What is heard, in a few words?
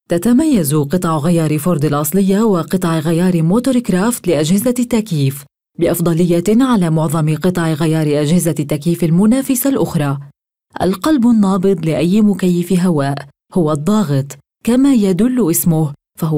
Arabic Female